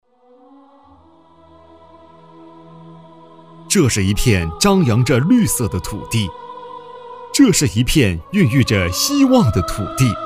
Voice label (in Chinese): 男 宣传片 城市旅游; 锡林浩特